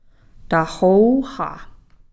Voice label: "tahoowxa", Cow Creek; evening